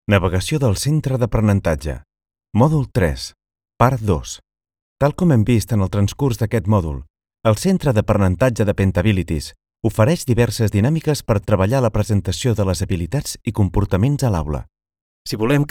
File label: catalan e-learning